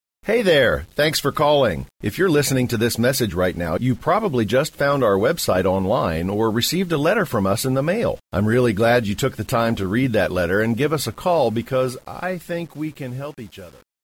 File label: voicemail script male